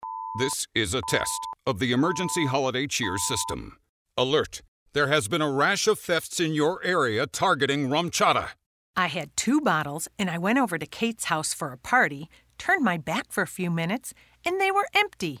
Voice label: Warning Radio; Rumchata